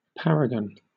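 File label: "paragon", British English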